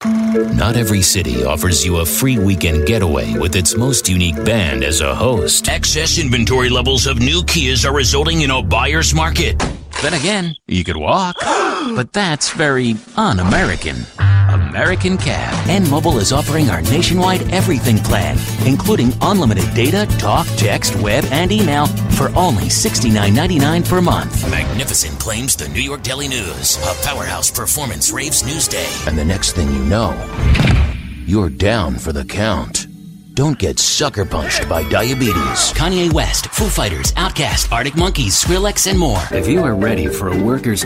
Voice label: commercial voice overs

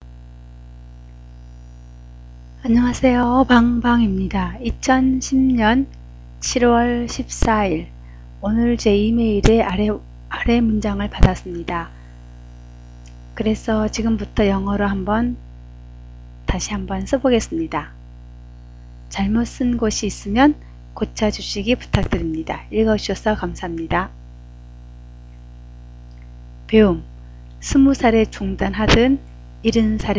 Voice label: korean learning

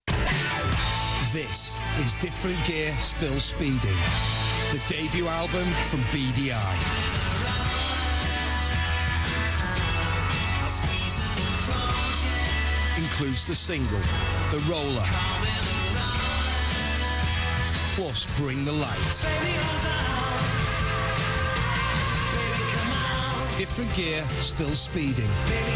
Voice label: different gear still speeding uk radio advert

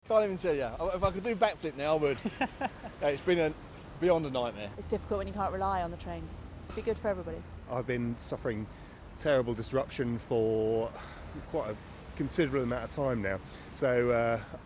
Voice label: southern vox

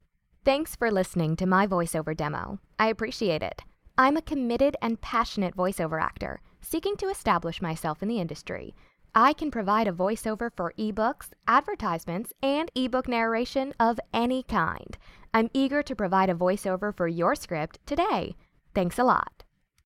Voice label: professional voicemail greetings

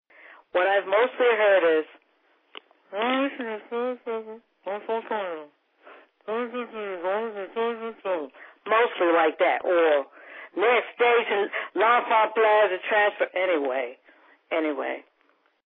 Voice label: metro announcement anyway

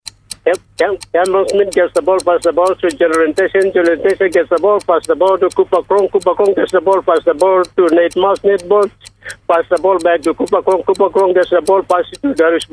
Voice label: commentator promo; lo erima yumi fm

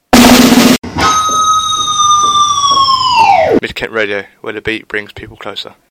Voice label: radio strapline

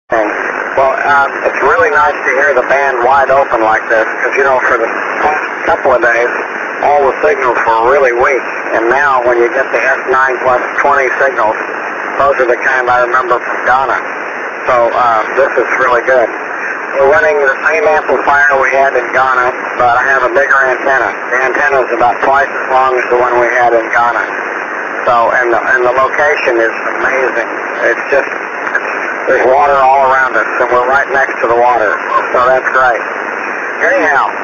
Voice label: on 6m ssb

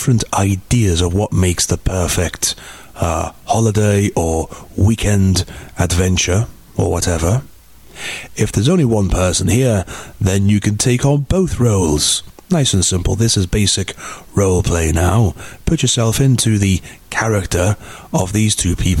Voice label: english beach and camping

